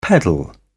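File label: "pedal", English, British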